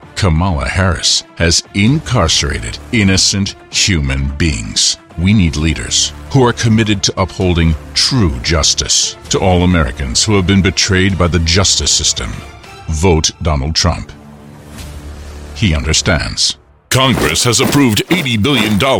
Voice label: political, Deep Male Voice, Political Ads Tv/Radio; Political Spots; 2024-25 Political Ads Tv/Radio